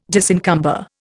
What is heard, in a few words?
"disencumber", Indian